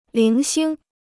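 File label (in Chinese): "零星" Chinese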